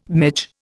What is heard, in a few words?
"midge", Indian